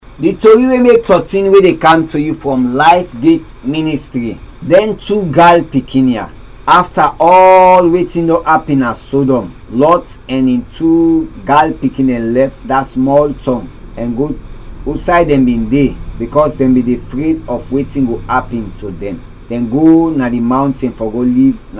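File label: Krio Sierra Leone; Africa; Krio Stories; TWO DAUGHTERS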